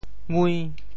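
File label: "ngui~5", Teochew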